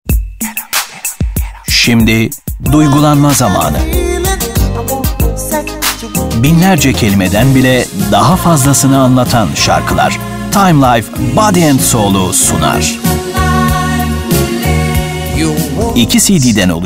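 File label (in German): Dauerwerbung türkisch; timelife; bodysoulcd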